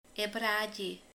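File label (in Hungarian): "vödör", Hungarian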